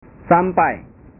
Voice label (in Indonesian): "sampai", bahasa